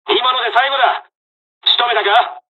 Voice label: dialog, dialogs japanese; us midway; War Thunder

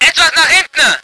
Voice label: voice ger vehicle

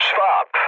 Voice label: enemy detected mood low, speech pol; War Thunder

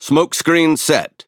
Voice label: Speech Consumable Smoke Stop, EN; World Of Warships

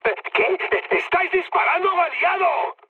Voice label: player friendly fire, mood high, speech

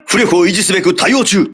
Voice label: repairing, voice message, crew ships japanese

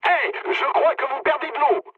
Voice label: damaged water mood med, speech fr; War Thunder

